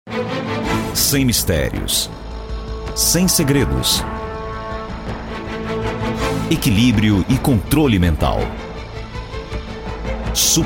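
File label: Portuguese General; GoLocalise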